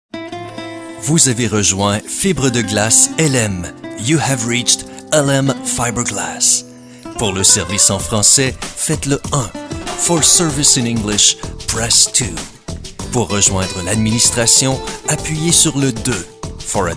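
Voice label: fr en, male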